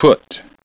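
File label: "put", English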